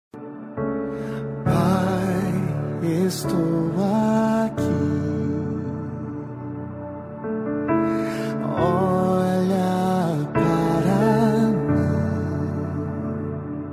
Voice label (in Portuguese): dia dos pais gospel masculina; evangelico voz